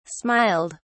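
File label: "smiled", British English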